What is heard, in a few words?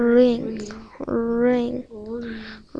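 "ring", spoken word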